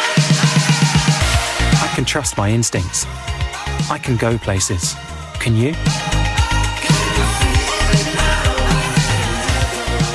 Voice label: Commercial, Deep to mid-range male voice with gravitas. Clear Neutral English tone